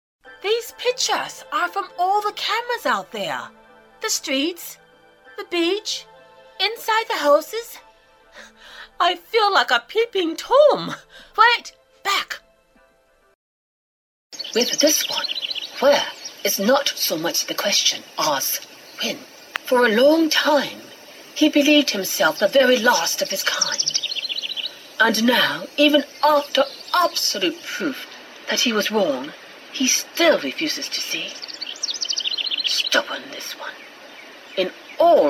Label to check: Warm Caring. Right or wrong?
Wrong